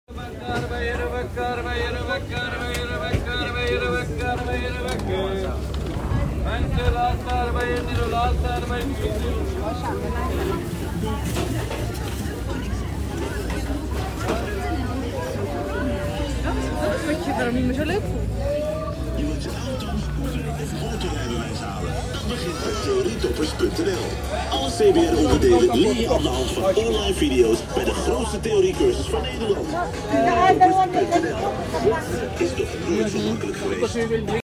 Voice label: People talking + radio, Rotterdam Field Recordings